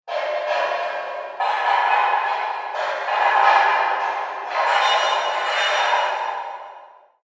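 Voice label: Prisoner Complain Distant; Prison Architect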